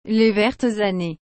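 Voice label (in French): "les vertes années", French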